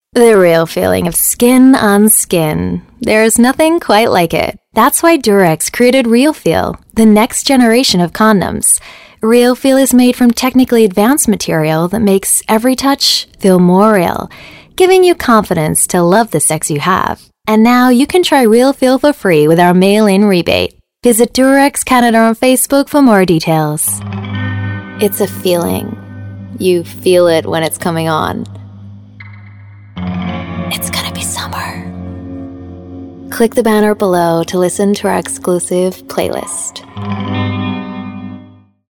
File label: US Commercial